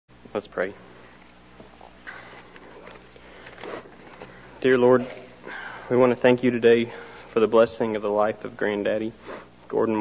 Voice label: Funeral Prayer Grandson; Memorial Services